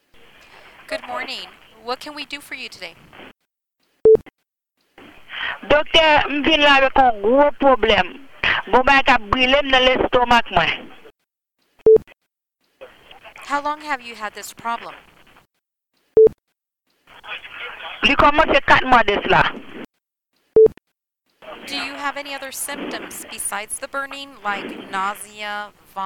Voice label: Medical Colonoscopy Procedure Haitian Creole without interpretation